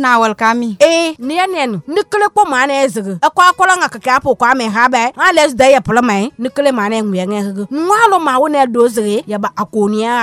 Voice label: Spot COVID vaccination guerze